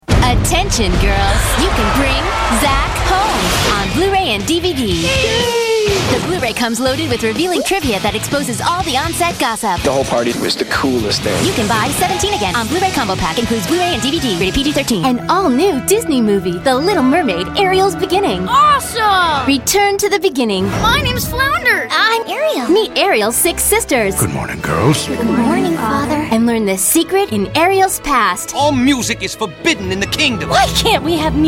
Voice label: trailer